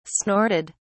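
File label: "snorted", British English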